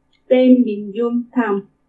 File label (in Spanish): "Témbini ka iumu t'amu", diecinueve, Purépecha; Números